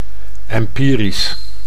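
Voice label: "empirisch", Dutch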